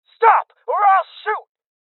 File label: Voices Freeze Male Young; Rebel Cops